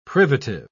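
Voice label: "privative", British English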